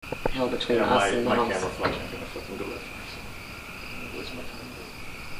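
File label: Horse Barn, EVP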